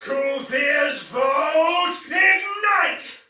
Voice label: Attack Heavy, Soldier Male; Sinecure; en